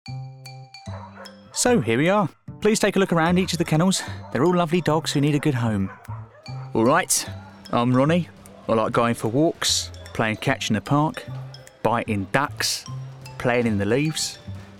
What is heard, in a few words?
character reel